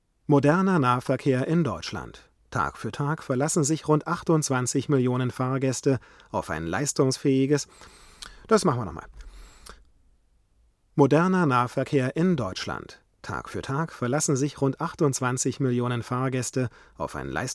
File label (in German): tlm170 acht, sprecherraum mikrofonvergleich